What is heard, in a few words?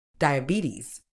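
"diabetes", British English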